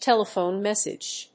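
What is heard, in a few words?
"telephone message", English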